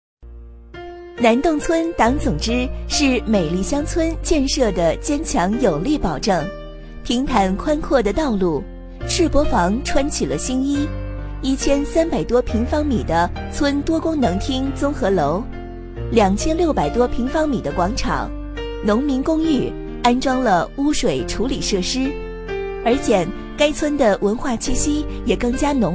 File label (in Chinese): 女 专题; 南洞村